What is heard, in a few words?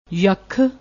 "yakkh", Punjabi